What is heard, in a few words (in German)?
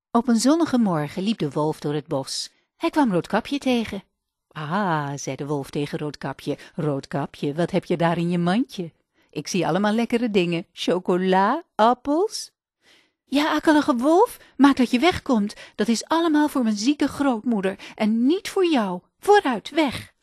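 Dutch maerchen, frauen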